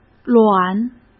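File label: "卵", Mandarin Chinese; hsk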